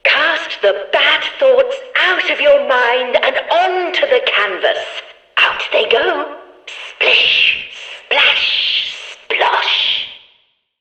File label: Background Announcements